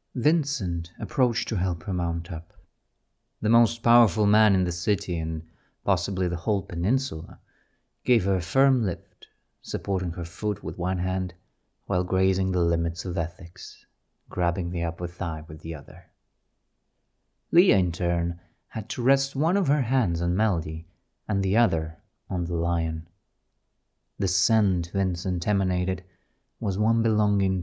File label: Reading English